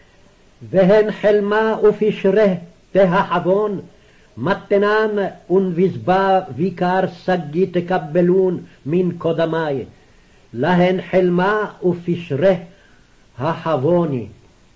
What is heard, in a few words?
Daniel Reading Practice, Aramaic